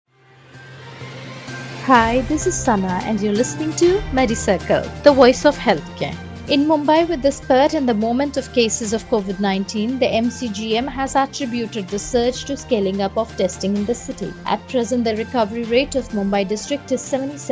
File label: english news